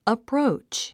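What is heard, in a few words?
"approach", English; əpróutʃ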